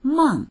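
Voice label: "meng4", Mandarin Chinese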